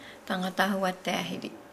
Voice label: "dangada hua dahili", Nukuoro; singer; Nukuoro Talking Dictionary; A searchable Nukuoro-English lexicon.